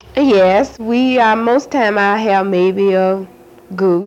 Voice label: Conversation with 31 year old black female Detroit Michigan, american english dialect